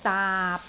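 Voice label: "daap6", Cantonese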